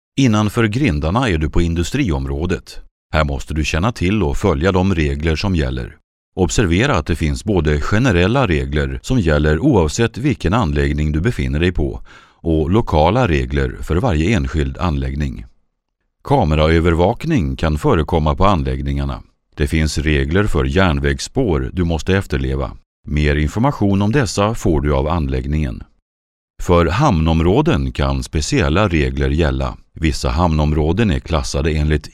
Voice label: swedish male